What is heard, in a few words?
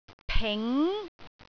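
"ping2", Cantonese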